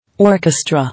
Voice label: "Orchestra", English

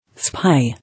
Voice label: "Spy", English

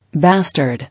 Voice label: "Bastard", English

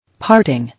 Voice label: "Parting", English

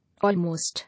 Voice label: "Almost", English

